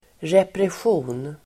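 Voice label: "repression", Swedish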